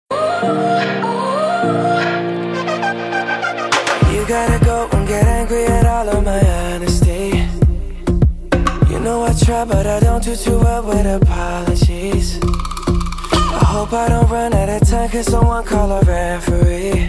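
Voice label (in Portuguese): birthday AMANTE female, telemensagem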